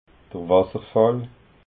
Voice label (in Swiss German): "wasserfall", alsace